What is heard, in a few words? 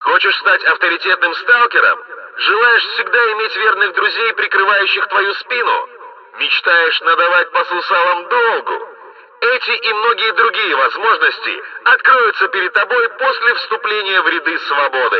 megaphone